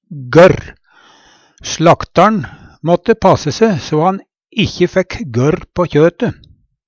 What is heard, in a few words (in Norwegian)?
gørr, Numedalsmål; vomskot; gørrskøt